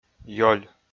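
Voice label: "ёль", Komi, male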